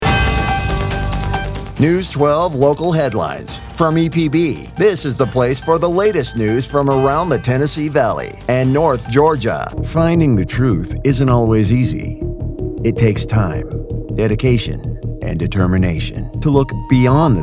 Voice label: PROMO; non-union male voiceover; Las Vegas, Nevada